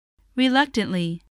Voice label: "reluctantly", spelling voice